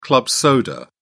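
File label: "club soda", English, British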